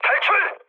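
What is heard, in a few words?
Korean bailout mood med; speech; War Thunder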